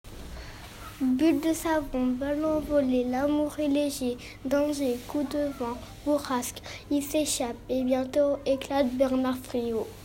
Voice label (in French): mise en voix poeme; Friot